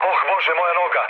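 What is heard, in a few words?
Serbian damaged pilot mood low, speech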